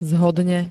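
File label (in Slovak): "zhodne", Slovak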